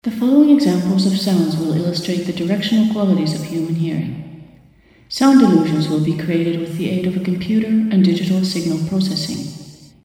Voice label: voice nonuniform